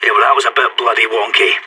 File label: scared emote